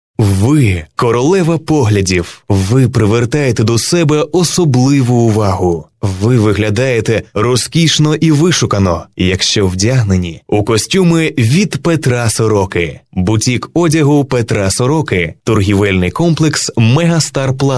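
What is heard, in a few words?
ukrainian male narration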